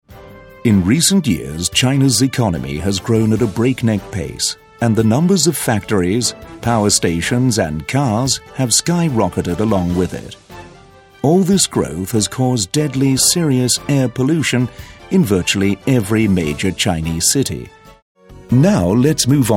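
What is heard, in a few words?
British English, narration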